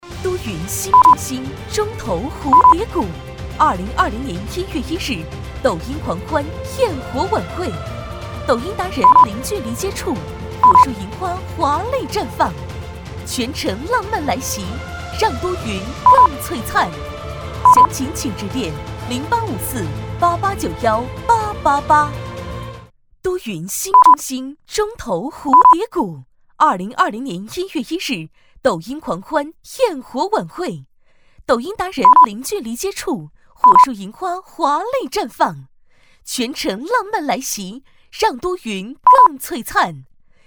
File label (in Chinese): 女 广告